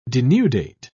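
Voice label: "denudate", British English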